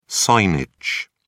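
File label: "signage", English, British English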